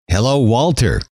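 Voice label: hello, male; trainer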